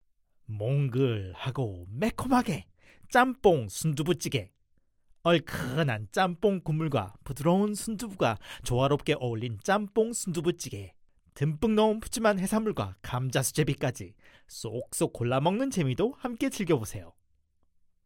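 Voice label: Korean, Commercial; GoLocalise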